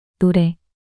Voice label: "노래" 단어 여자

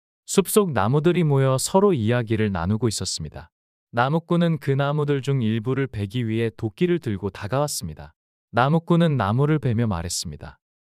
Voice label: 이야기 남자; 나무들과 도끼

